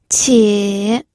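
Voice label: "qie", Mandarin Chinese, third tone; pinyin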